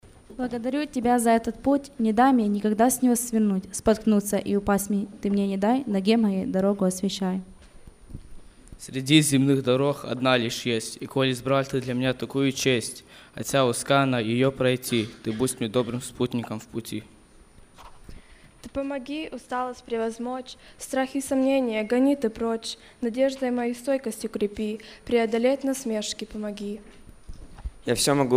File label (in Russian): Декламация; из Флориды; МО ВостокСША-окт 2009-утро